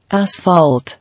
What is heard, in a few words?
"Asphalt", English